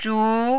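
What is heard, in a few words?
"zhu2", mandarin, rising tone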